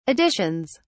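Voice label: "additions", English, British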